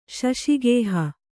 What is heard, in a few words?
"ಶಶಿಗೇಹ", kannada